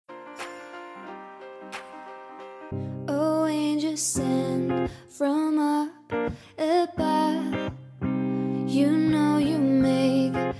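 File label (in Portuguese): aniversario amigo masculino, telemensagem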